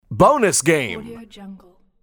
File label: "bonus game" male voice game phrase